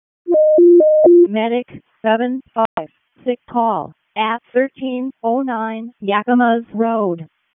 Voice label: EMS ALERT; wake